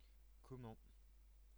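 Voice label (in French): "comment", French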